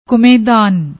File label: "kumedaan", Punjabi; ਕੁਮੇਦਾਨ